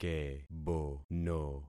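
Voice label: "kebono", concatenated syllables; Affixing experiment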